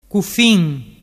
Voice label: "cufin", dialettu